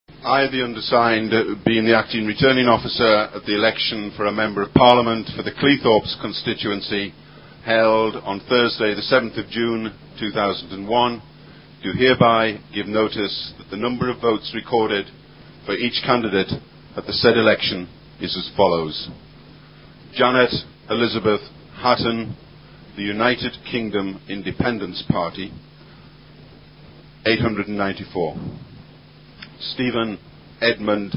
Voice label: Announcement